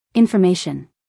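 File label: "information", American English female; everyone-can-use-english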